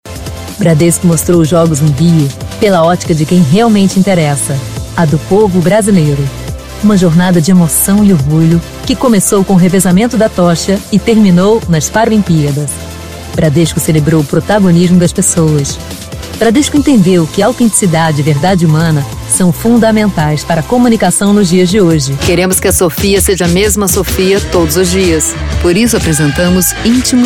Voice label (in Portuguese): Repertório SOFISTICADA ELEGANTE